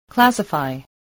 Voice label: "classify", voice

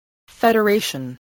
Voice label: "federation", spelling

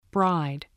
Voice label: "bride", spelling

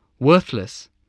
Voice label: "worthless", voice, english

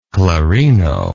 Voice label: "clarino", English

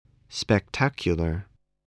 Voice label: "spectacular", English voice

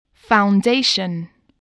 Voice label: "foundation", English voice, spelling

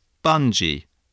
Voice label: "bungee", spelling

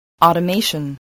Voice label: "automation", english

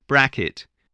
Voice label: "bracket", spelling

